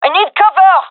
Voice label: request cover me, fem; vo

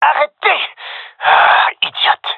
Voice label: taking fire friendly, gendermerie male; vo

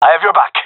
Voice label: covering friend, gendarmerie male; vo

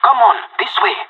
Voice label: radio follow me, pirate; Counter Strike Model Pack; CS:GO

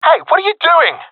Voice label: takingfire friendly, professional epic, agents; vo; Source Model Packs; Counter Strike Model Pack; CS2

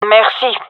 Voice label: thankful, gendarmerie fem

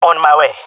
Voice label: jungle male; player vo; Counter Strike Model Pack; CS:GO

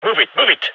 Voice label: radio lets go; gign

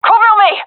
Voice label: request coverme, gendermerie fem; vo

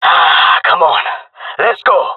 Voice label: round start, male; player vo; Counter Strike Model Pack; CS:GO

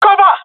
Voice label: request cover me, jungle male; vo; agents; Source Model Packs; Counter Strike Model Pack; CS2

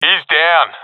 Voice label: i killed enemy, professional; vo; Counter Strike Model Pack; CS:GO

